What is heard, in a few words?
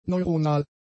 "neuronal", German; adjectives